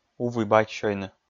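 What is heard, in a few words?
"ув и бадь сёйны", Komi, male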